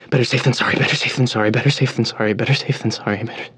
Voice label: radio initial broadcast; Left 4 Dead 2; left4dead2 dlc3; churchguy; npc

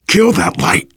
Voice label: kill that light, voice, biker

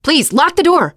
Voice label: close the door, teen girl; player; survivor; voice

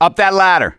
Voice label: misc directional, voice; gambler

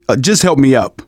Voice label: getting revived, manager, survivor, voice

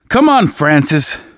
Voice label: revive friend, survivor voice manager

